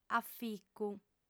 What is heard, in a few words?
"aficu", audiofem